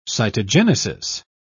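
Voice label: "cytogenesis", British English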